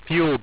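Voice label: "fuel", english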